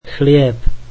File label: slovak bread